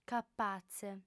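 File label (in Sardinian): "capatze", female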